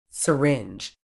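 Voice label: "syringe", British English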